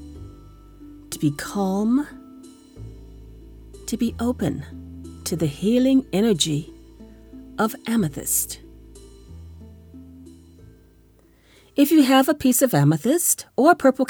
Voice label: Meditation Voice Over Chant Audio Story